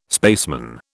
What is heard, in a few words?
"spaceman", British